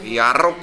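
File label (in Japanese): "yaroko", 南山形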